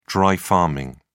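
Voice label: "dry farming", British English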